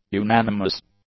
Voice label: "unanimous", English; adjectives